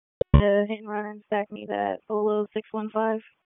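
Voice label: Police Southwest District Dispatch; Raleigh; wake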